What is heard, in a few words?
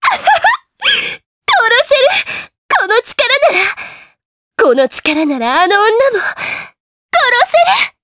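vox Japanese; Erze; kingsraid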